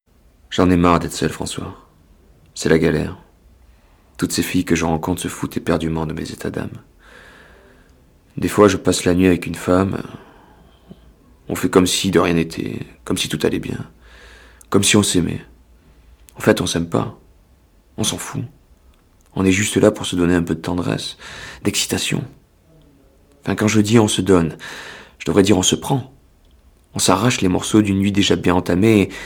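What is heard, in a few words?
FR H AUDIO-BOOK, French, male; Français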